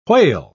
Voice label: "whale", pronounce